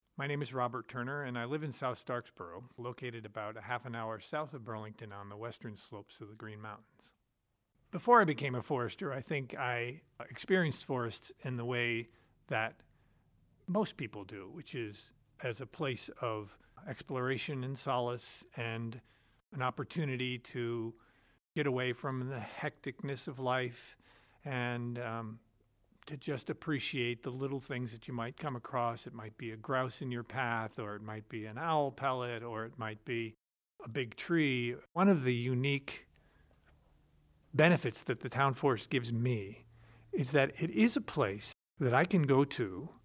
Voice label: audiovignette rough mix; Voices Project; Listen to a Conversation; Hinesburg; town forest